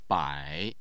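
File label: "bai", Chinese, third tone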